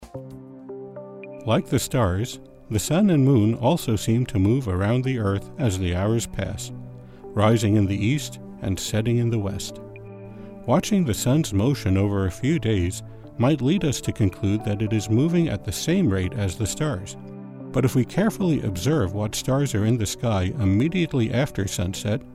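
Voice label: Narration; Sun's Motion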